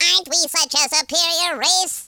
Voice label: brilliant, speech, Alien; Worms3D

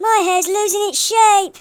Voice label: run away, speech, Hippy

